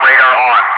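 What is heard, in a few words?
"radar on", English speech, Wingman; Messages; DCS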